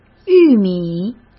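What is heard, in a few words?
"玉米", Mandarin Chinese; chinese flashcards; hsk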